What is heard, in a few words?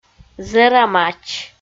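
"зэрамач", Komi-Zyrian, female; audio dict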